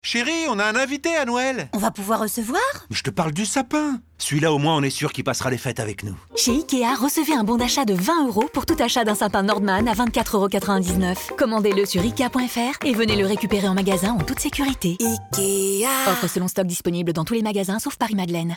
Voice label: Comedienne Voix Officielle pub radio Sapin Comedie; sapin de noel; IKEA

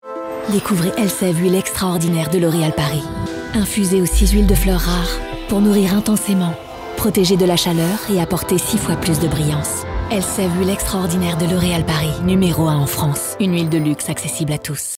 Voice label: Voix Off Pub TV, voix-off pub tv; ELSEVE Huile Extraordinaire; L'Oreal Paris

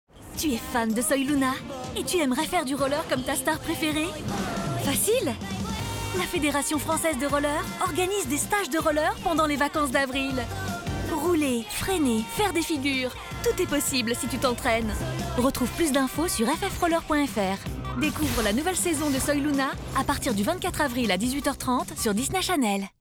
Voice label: Voix Off Pub TV; SOY LUNA; la FFR; Disney Channel; federation francaise de roller

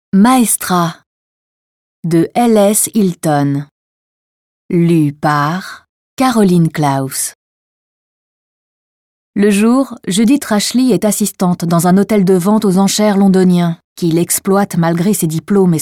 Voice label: Audiolib 4ème de couverture; MAESTRA

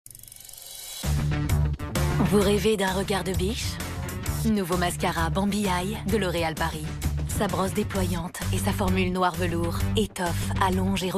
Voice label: Publicite; Mascara Bambi Eye; l'Oreal Paris

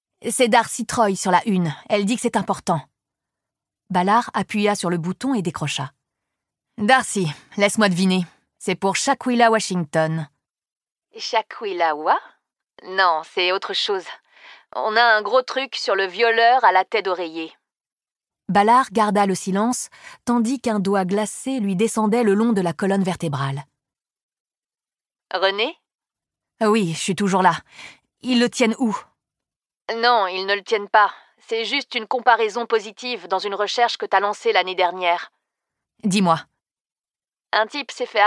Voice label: LIVRE AUDIO; Renée Ballard; « A qui sait attendre » de Michael Connelly; Série d'enquêtes avec Harry Bosch; audiolib

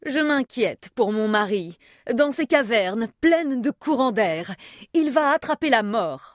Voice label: Voix Femme Bourgeoise, Jeu vidéo

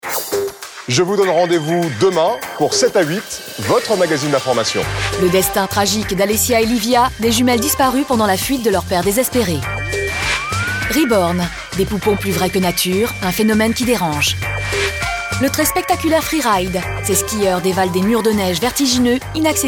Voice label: Voix Off Bande annonce; TF1 sept a huit

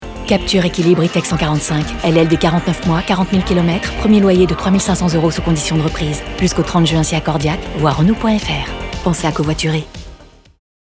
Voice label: Pub Radio Mentions legales; RENAULT Capture

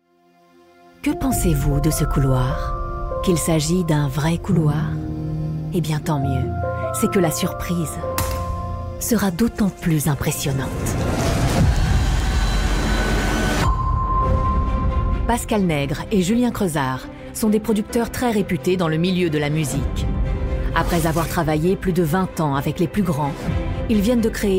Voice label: Voix off emission; Audition Secrete; M6

